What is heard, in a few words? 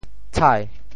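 "tshai2", Teochew; 倸; cǎi; 潮州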